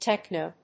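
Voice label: "techno", English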